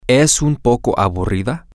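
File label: is she a little boring, Spanish, male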